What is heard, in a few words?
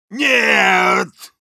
negative vocalization, ru; Soldier